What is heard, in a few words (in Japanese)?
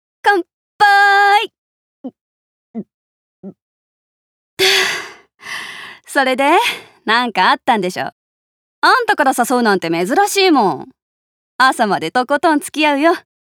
悩みを聞く先輩30代